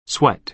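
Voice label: "sweat", American English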